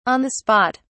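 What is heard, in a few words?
"on the spot", English, British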